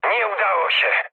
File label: bomb failure mood med, speech pol; War Thunder